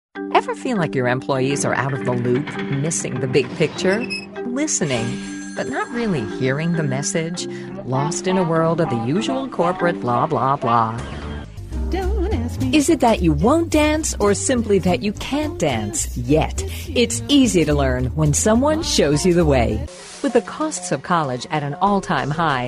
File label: American-English Explainer Video